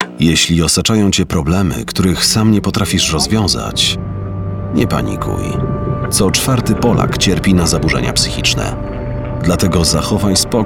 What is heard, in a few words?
radio